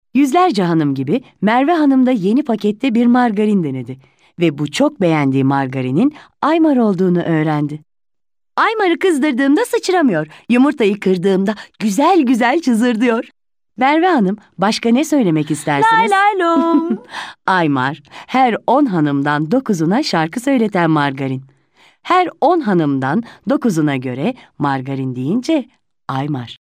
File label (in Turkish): KADIN